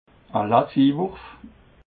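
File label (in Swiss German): "latziwurf", Haut-Rhin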